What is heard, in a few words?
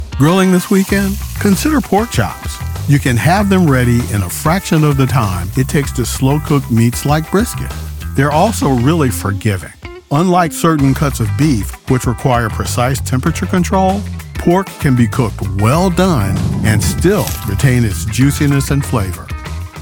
Pork Narration